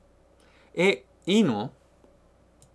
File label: dialogue line, japanese